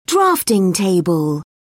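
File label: "drafting table", British English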